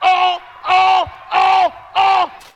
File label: "oh oh oh oh" male